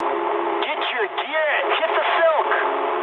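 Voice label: English bailout mood med; War Thunder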